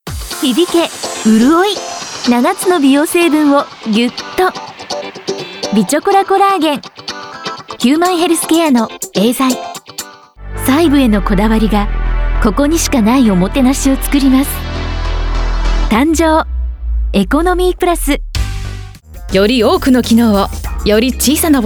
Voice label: Japanese Commercial